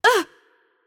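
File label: Hurt Light, Arabic; bloom minigame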